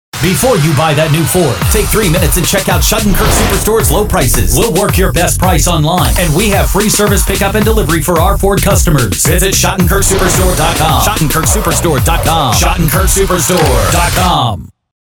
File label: Automotive Hard Sell